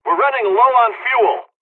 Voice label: dlg, hungarian; War Thunder